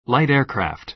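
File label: "light aircraft", American English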